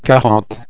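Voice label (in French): "quarante", French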